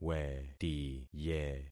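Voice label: "wetiye", concatenated syllables; Affixing experiment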